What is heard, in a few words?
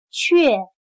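"却" Chinese, què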